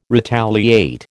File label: "retaliate", American